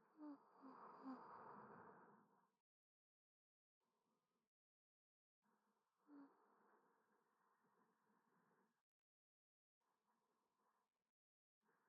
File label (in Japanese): 添い寝